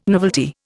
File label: "novelty", Indian